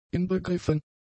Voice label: "inbegriffen", German; adjectives

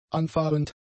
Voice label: "anfahrend", German; adjectives

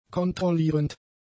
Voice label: "kontrollierend", German; adjectives

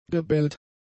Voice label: "gebellt", German; adjectives

